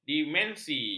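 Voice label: "dimensi", Malay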